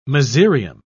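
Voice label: "mezereum", British English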